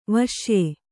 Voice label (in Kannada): "ವೈಶ್ಯೆ", Kannada